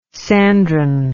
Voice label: "sandron", English

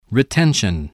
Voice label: "retention", English

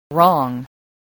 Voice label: "wrong", spelling

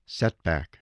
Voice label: "setback", spelling

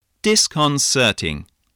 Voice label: "disconcerting", english spelling

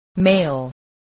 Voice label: "mail", spelling voice

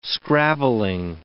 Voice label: "scrabbling", English

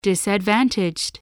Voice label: "disadvantaged", english spelling

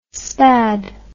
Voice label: "spad", english

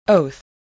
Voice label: "oath", voice, spelling

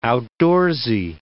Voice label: "outdoorsy", English word

